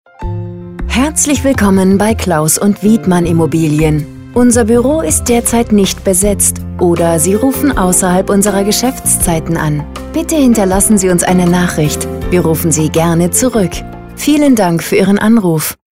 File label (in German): AB Ansage; Telefonansage Immobilien – Makler